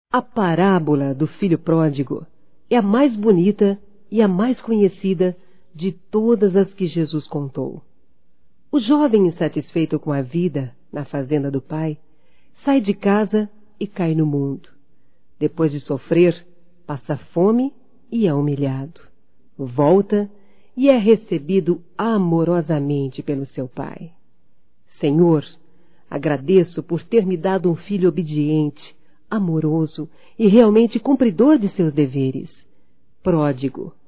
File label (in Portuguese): Aniversário Feminino, Telemensagens; Aniversário Filho Feminino